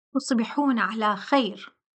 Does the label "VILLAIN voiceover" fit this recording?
No